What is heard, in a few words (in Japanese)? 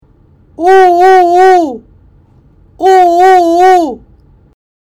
母音「お」ハッキリ